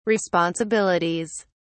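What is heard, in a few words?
"responsibilities", British English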